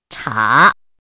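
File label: "cha" third tone, pinyin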